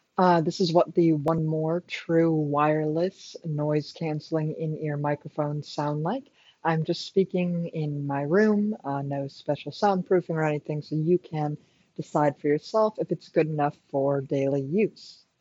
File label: 1More True Wireless ANC microphone